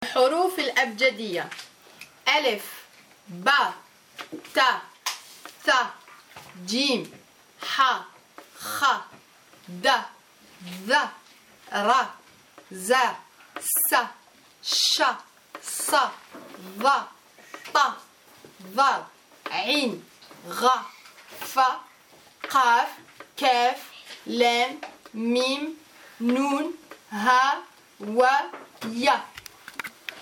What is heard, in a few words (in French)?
alphabet en arabe